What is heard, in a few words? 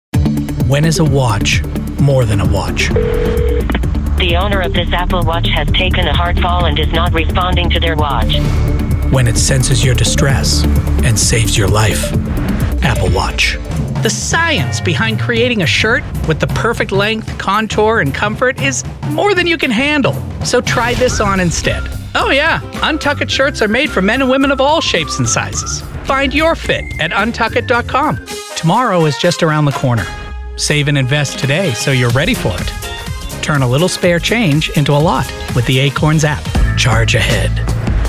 Commercial